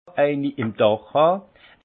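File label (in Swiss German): "dach han", alsace Haut-Rhin; vin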